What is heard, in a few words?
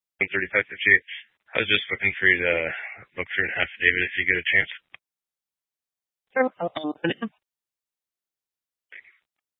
Police Dispatch; Police 1 Dispatch